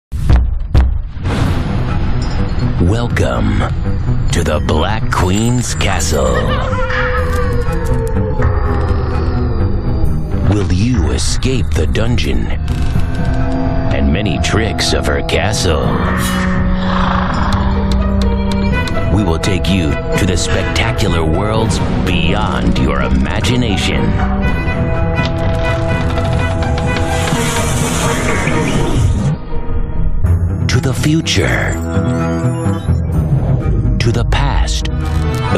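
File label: US English, Cool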